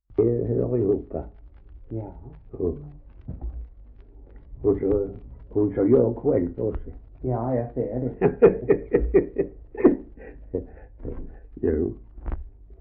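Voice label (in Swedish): Ausås Skåne, dialekter; Folkminnen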